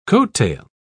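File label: "coattail", British English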